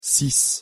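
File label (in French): "six" French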